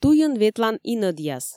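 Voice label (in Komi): "туйын ветлан инӧдъяс", Komi, female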